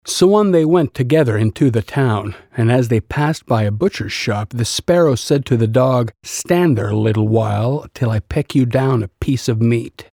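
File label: English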